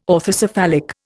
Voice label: "orthocephalic", Indian